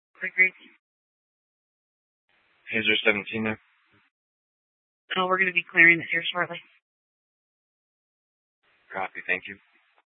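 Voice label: police department Dispatch, scanner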